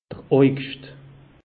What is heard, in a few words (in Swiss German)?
"augscht", alsace; meteo